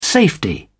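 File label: "safety", English, British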